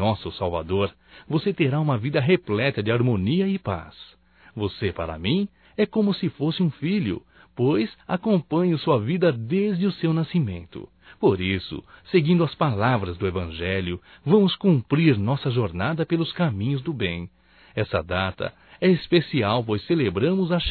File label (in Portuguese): Natal e Ano Novo Sobrinho Masculino; Telemensagens 2017